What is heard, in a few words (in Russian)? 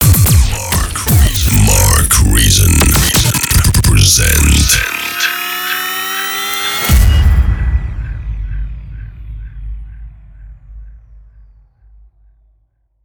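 DJ ДЖИНГЛ, Диктор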